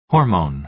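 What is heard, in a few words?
"hormone", American English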